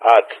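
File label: "at", spoken; Ka-50; Speech; DCS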